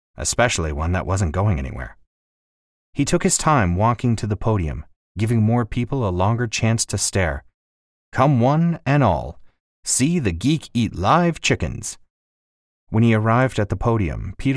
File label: horror monologue child voice